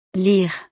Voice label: "lire", frances

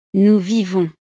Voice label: "nous vivons", frances

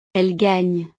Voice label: "elles gagnent", frances

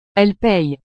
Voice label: "elles payent", frances

